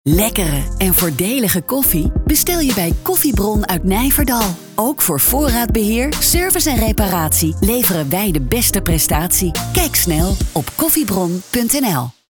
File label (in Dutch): radiocommercial; Koffiebron